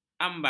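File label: "ambai", Malay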